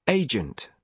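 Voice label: "agent", British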